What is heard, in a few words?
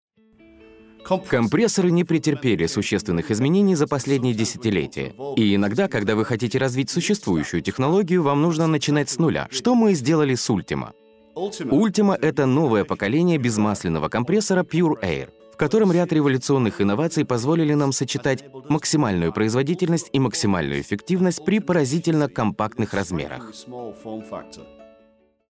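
Ukrainian, male, narration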